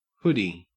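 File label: "hoody", Australian English; pronunciations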